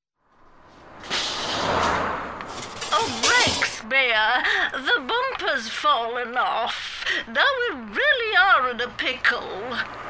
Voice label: Cartoon, Warm, Trustworthy, Dreamy, Smooth, Informative, Upbeat